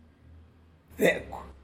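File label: "dog", Tlacolula Zapotec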